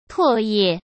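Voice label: "唾液", Mandarin Chinese; chinese flashcards; hsk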